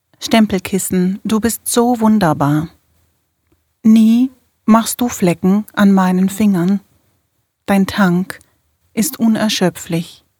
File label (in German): sachlich